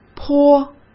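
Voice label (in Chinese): "颇", Mandarin Chinese; chinese-flashcards; hsk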